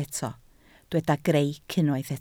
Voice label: "greic", Welsh